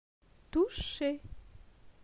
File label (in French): "toucher", francais